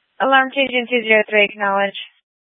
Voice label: Fire Departments Mesa Simulcast; TOPAZ